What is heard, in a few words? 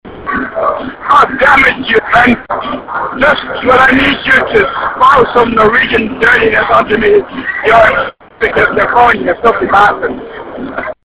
dutch phone terror